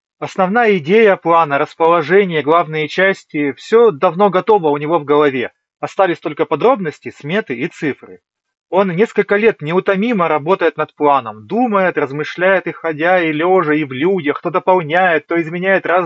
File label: Mic; Запись с микрофона гарнитуры; Anker Soundcore P40i